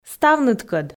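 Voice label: "ставныдкӧд", Komi, female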